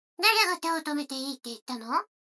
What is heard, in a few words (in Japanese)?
女の子 台詞